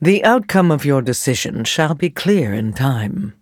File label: neutral; en; nonlinear